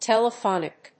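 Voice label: "telephonic", English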